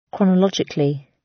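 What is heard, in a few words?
"chronologically", British English; Time, history; Thời gian, lịch sử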